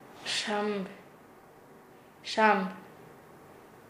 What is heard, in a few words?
"reunion", Nasa Yuwe; paez